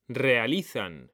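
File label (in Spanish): "realizan", male; mekanta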